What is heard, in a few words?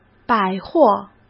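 "百货", Mandarin Chinese; chinese flashcards; hsk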